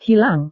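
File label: "hilang", Indonesian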